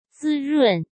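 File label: "滋润", Mandarin Chinese; chinese-flashcards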